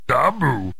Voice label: Grunt Dabu; video games; Warcraft 2